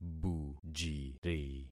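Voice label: "bujiri", concatenated syllables; Affixing experiment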